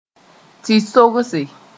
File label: "tɩ-sogse", Moore; magic